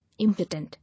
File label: "Impotent", English